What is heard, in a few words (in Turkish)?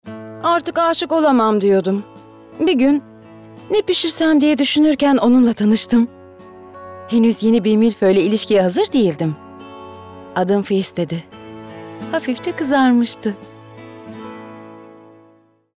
Romantik